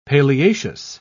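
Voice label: "paleaceous", British English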